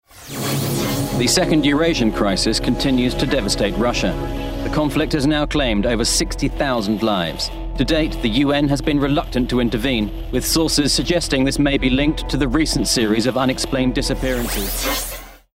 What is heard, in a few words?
Gaming Character Broadcaster RP